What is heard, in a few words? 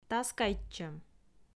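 "таскайтчӧм", Komi, female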